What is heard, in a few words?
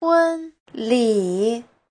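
"hūnlǐ", Chinese; Giáo trình Hán ngữ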